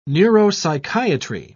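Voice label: "neuropsychiatry", British English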